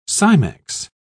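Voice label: "cimex", British English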